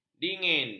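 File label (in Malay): "dingin", Malay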